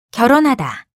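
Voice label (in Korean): "결혼하다", Korean; TOPIK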